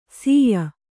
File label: "ಸೀಯ", Kannada